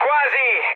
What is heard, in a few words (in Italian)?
bomb failure, mood med, speech it; War Thunder